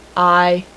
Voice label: "i" female; alphabet; Web Clip Empire 150,000